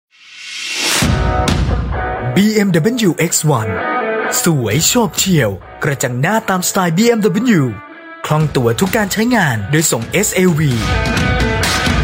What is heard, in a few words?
Thai male commercials cool elegant